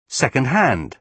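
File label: "second hand", British English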